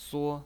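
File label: "suo", Mandarin Chinese pinyin, first tone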